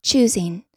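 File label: "choosing", American English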